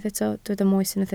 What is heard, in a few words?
"moes", Welsh